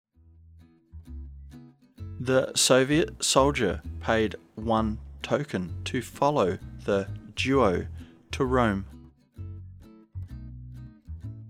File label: slow, diphthong əʊ as in home